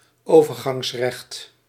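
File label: "overgangsrecht", Dutch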